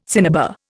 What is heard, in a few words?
"cinnabar", Indian